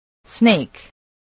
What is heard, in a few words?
"snake", English voice